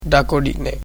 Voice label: "near", Sora

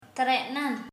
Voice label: "swing", Sora

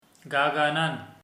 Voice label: "gaping", Sora

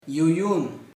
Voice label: "ancestor fem", Sora

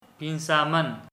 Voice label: "cup", Sora

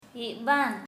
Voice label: "torn", Sora

